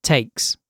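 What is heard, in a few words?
"takes", British English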